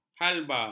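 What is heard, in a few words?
"halba", Malay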